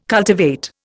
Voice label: "cultivate", Indian